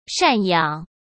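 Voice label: "赡养", Mandarin Chinese; hsk; chinese flashcards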